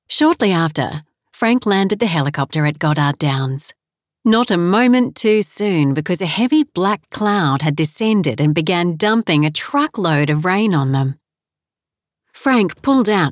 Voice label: Audiobook Sample; Slow Path To Peace; Audiobook Narration